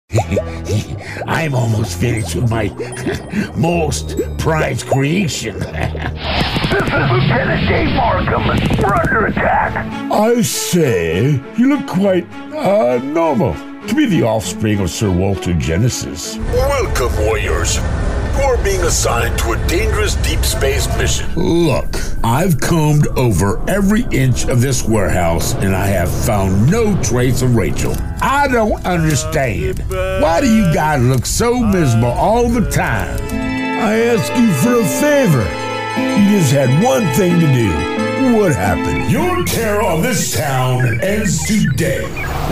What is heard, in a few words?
Character